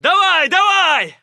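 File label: Russian Positive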